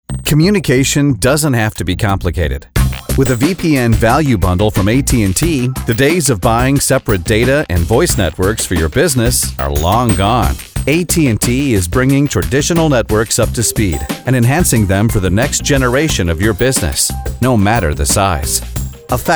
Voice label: 外籍男 商业广告 Commercial

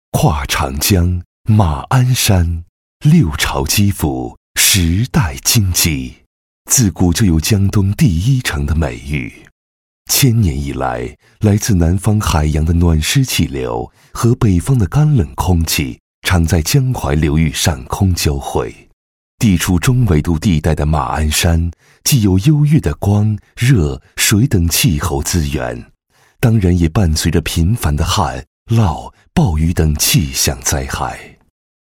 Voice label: 男 企业宣传 宣传配音; 马鞍山气象

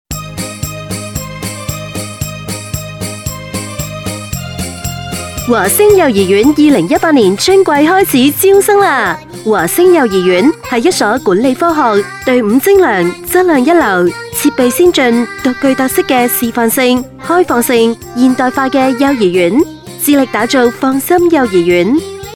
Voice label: 粤女 幼儿园; 华星幼儿园

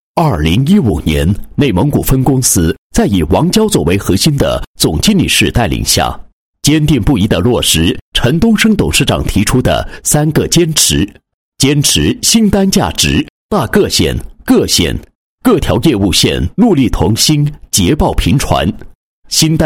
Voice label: 男-VCR, 配音案例; 泰康人寿2016年VCR

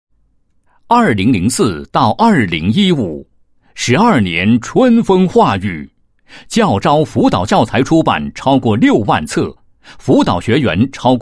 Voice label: 男 教育 教师辅导领航者 宣传简介, 配音案例; 优仕达教育

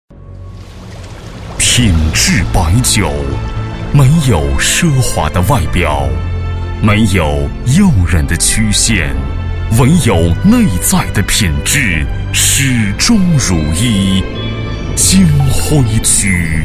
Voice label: 男3-广告, 国语男声配音; 金徽酒

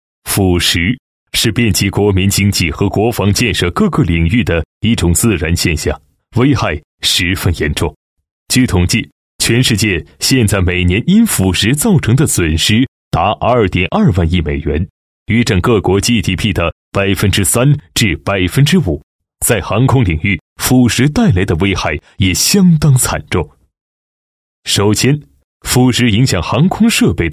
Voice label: 男 正气干练 军旅纪录片, 国语男声配音; 大气专题

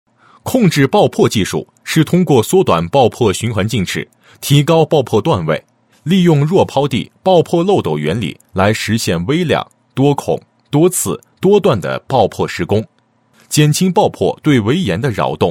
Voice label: 男 解说; 下穿建、构筑物控制爆破掘进施工技术

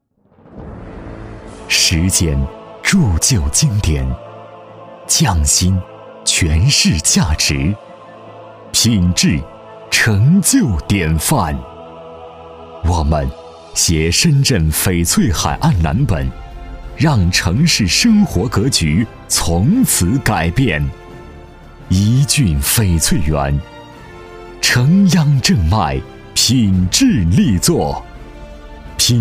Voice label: 男 地产广高

